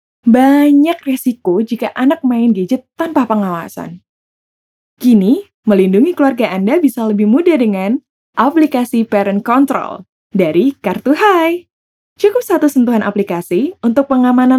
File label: CASUAL INDO